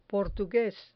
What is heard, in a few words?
"portugues", Spanish